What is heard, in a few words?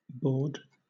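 "baud", British English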